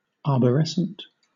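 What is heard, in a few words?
"arborescent", British English; pronunciations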